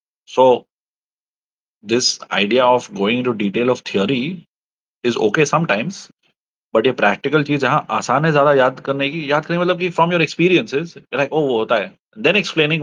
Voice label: voice message